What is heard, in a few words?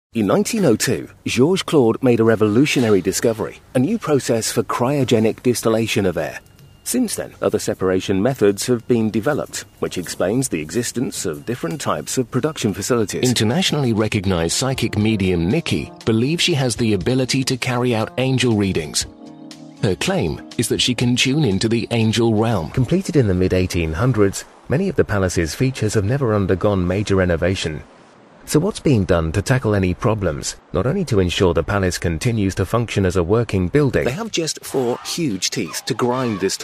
narration